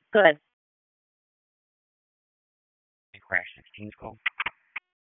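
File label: Police Northeast District Disp, radio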